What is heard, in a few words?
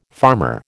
"farmer", American